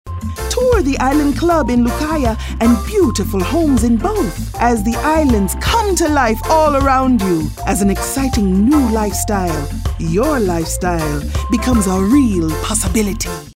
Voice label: jamaican